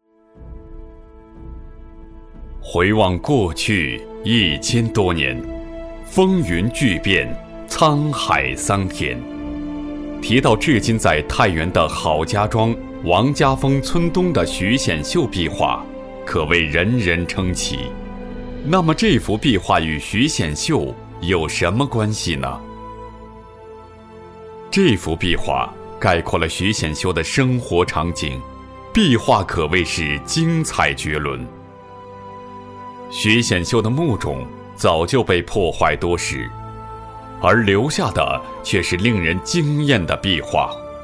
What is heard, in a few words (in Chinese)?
男 专题